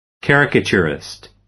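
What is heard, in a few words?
"caricaturist", American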